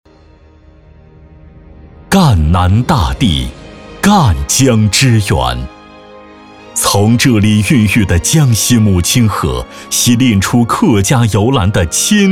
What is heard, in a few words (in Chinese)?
男 学校宣传; 赣南医学院